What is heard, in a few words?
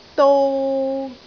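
"dou3", Cantonese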